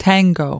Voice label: "tango", us

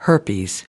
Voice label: "herpes", us

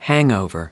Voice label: "hangover", us

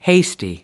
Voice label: "hasty", us

